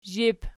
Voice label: "zhip", Archi